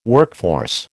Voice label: "workforce", American